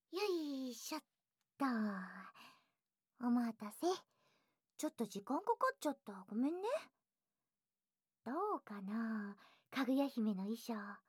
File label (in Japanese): かぐや姫 読み聞かせ 耳かき